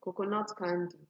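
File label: "coconut candy", Nigerian Pidgin, female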